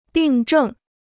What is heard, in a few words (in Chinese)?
"病症", Chinese